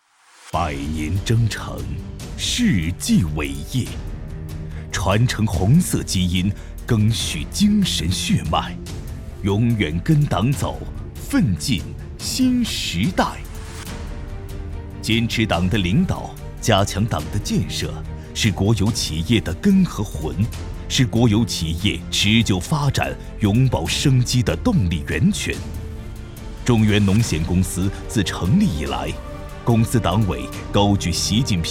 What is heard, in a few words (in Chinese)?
男 专题片 党政 大气磅礴